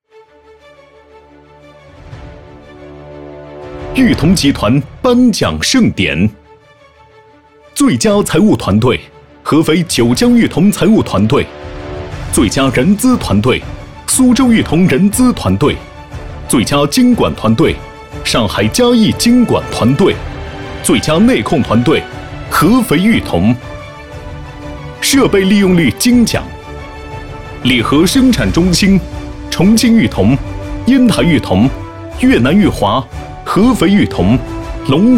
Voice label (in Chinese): 男 颁奖 慷慨激昂; 裕同集团颁奖盛典